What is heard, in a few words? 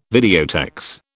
"videotex", British